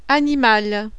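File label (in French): "animal", French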